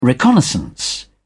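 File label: "reconnaissance", British English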